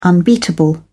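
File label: "unbeatable", British English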